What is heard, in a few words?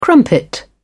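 "crumpet", British English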